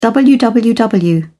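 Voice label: "www", English, British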